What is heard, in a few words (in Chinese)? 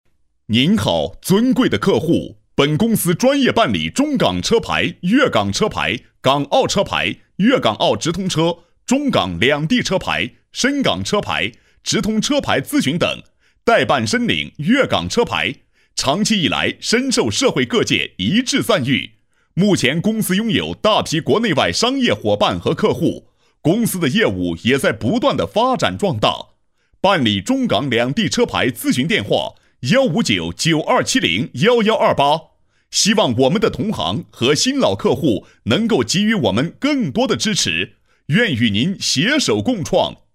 彩铃, 男